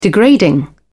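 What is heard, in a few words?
"degrading", British English, dictionary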